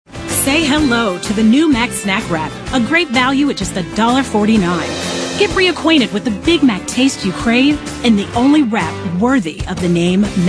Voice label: female, late30s early40s clean